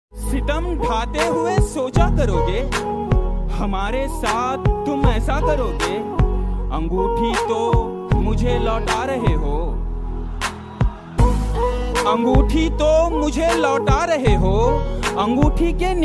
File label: Shayari Ringtone